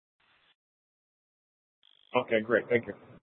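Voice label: police dispatch, scanner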